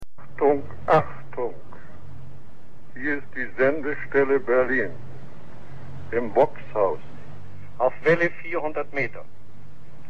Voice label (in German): Ansage; Voxhaus